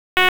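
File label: Police Northeast District Dispatch, Raleigh; wake p25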